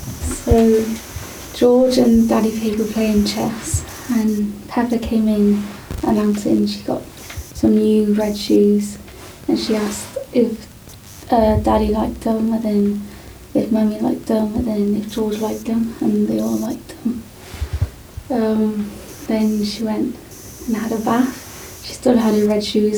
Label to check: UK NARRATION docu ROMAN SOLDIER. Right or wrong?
Wrong